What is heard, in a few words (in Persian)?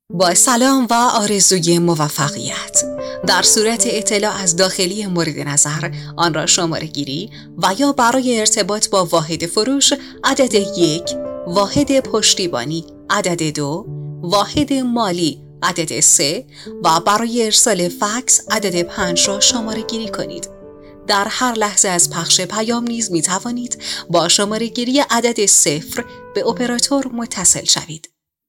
صدا خانم